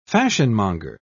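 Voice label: "fashionmonger", British English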